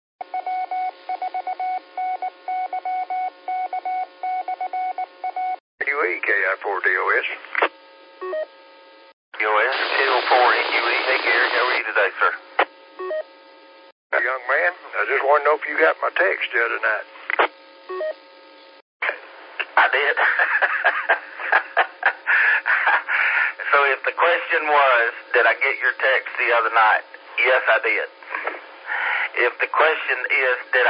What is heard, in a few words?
qso rec simplex logic